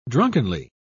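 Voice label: "drunkenly", British English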